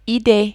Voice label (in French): "idee", French